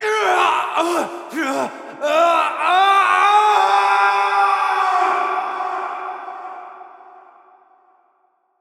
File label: Bridge Collapse Adult; Warframe; Duviri Quest; Duviri Paradox Quest